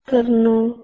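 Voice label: "trno", Proto-Indo-European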